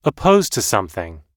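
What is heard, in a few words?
"opposed to something", US English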